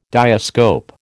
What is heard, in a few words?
"diascope", American